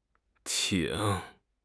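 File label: "ting3", Mandarin Chinese; HSK4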